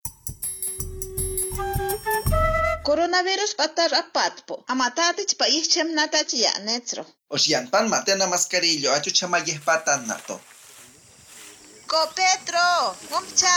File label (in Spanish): yanesha 2 voces, spot de radio; uso de mascarilla; medidas de prevencion